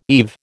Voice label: "eve", American